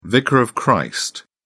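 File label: "vicar of christ", British English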